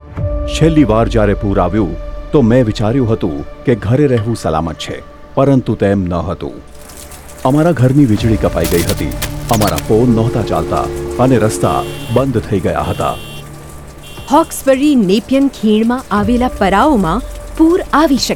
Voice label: radio ad know your risk gujarati

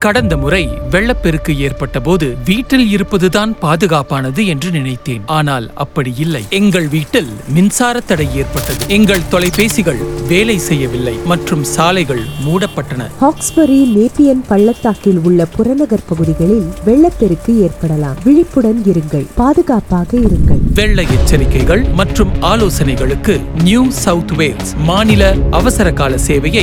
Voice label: radio ad tamil; know your risk

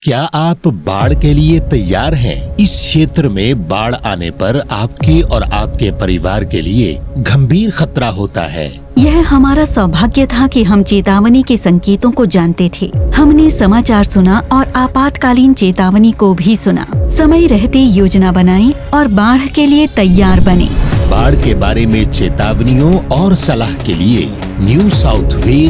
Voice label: radio ad whats your plan hindi

